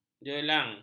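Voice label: "jelang", Malay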